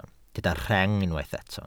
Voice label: "rheng", welsh